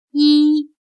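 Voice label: "医" Chinese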